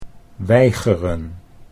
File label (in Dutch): "weigeren", Dutch